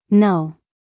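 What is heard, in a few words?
"know", American English, female; 1000 hours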